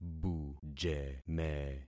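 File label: "bujeme", concatenated syllables; Affixing experiment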